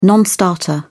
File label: "non starter", en uk